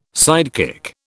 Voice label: "sidekick", British